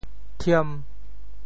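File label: "thiem5", Teochew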